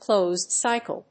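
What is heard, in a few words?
"closed-cycle", English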